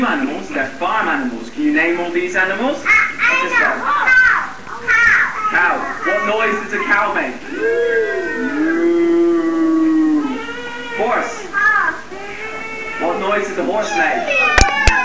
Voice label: english